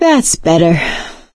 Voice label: pain relieft pills, survivor voice; source engine; left 4 dead 2; producer

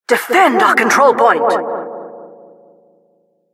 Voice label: announcer cap incite

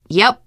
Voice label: yes, teengirl; survivor voice

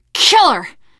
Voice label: reaction positive, teen girl; survivor voice

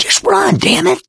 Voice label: emphatic go quiet, mechanic, survivor, voice; source engine; left 4 dead 2

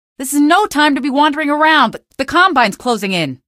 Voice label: citizen greet, female; hl2; coast; odessa; Source engine

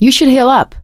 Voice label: suggest health, survivor voice; source engine; left 4 dead 2; producer

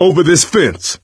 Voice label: misc directional, coach, survivor voice

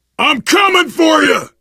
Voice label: to the rescue, survivor voice biker

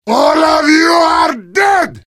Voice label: heavy taunts; video games; source engine; tf

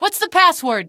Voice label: password, female; Source Engine; video game; streetwar; tunnel

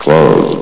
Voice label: "close", vox; Source Engine; bshift

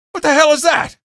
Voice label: alert zombie, male; video games; npc; source engine; episodic; episode 1

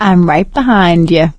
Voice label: lead on, survivor voice

